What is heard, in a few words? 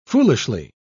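"foolishly", British English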